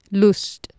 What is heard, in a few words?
"lust", Anglo Saxon